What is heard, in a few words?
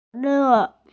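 "cola", Mandarin Chinese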